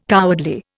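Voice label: "cowardly", Indian English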